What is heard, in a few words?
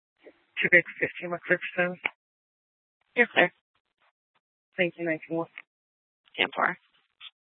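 police dispatch, scanner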